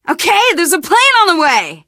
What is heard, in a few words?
radio used plane, teen girl, survivor voice; source engine; left 4 dead 2